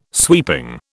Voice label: "sweeping", British

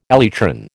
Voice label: "elytron", American

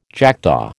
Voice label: "jackdaw", American

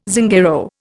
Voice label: "Zingaro", Indian

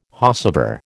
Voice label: "whosever", American

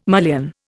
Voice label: "mullion", Indian

